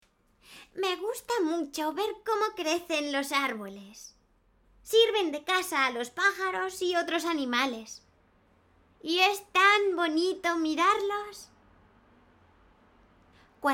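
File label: Spanish, child voice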